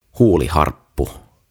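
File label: "Huuliharppu", "Mouth Organ", Finnish, English; OTAVA KONSERNI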